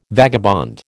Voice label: "vagabond", American